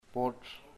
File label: sky, chamacoco